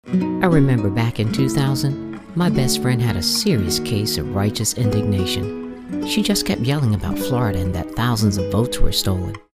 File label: thoughtful